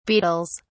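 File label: "beetles", en, uk British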